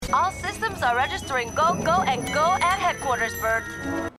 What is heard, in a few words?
Animation